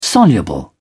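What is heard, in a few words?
"soluble", English, British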